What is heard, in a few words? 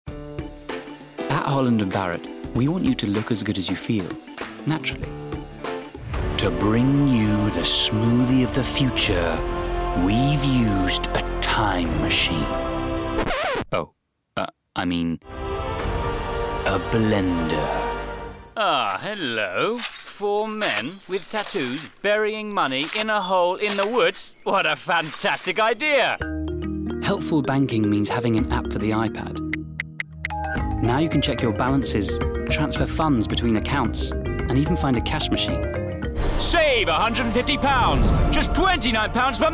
Commercials Montage; 20/30's RP/London, Confident/Natural/Direct